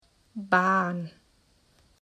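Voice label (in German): "Bahn", German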